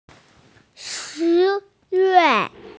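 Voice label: "shi2yue4", Mandarin Chinese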